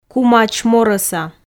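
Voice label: "кумач мороса", Komi, female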